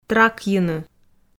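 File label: "тракйыны", Komi, female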